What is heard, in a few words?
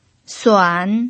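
"suan", Mandarin Chinese pinyin, third tone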